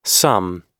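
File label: "some", American English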